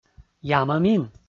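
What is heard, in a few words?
"ямӧмин", Komi, male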